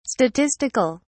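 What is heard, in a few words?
"statistic(al)", British English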